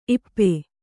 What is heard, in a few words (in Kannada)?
"ಇಪ್ಪೆ", Kannada